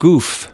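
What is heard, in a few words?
"goof", US English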